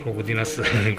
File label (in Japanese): "roguderasu", minami yamagata